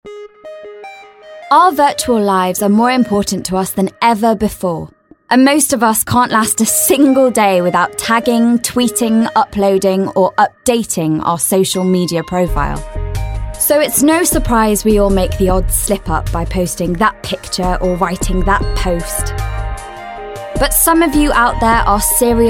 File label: Documentary; Social Media